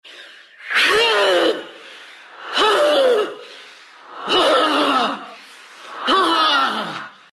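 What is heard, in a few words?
wailing, Chinese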